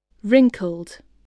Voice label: "wrinkled", voice, english

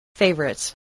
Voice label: "favourite", spelling

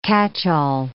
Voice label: "catchall", English

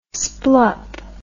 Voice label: "splup", English

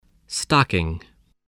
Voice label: "stocking", voice

